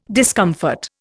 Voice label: "discomfort", Indian